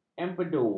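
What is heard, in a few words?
"empedu", Malay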